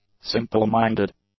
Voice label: "simple-minded", English; adjectives

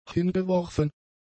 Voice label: "hingeworfen", German; adjectives

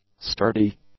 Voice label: "sturdy", English; adjectives

